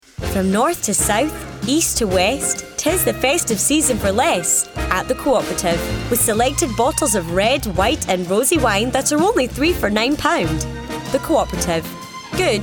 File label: Commercials